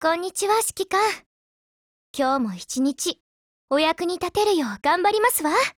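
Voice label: HELLO, Japanese; STG44 Mod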